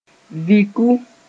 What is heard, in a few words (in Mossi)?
"viku", moore